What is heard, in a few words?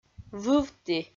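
"вывті", Komi, female; audio dict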